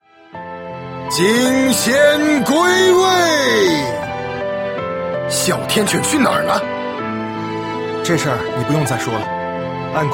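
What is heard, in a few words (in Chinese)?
male, qingnian (young man)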